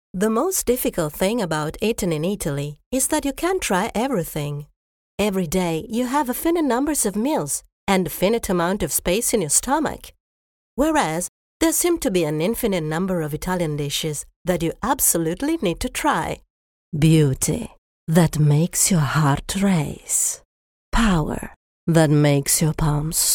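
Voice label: Italian English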